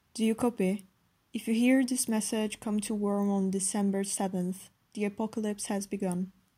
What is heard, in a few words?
radio message eng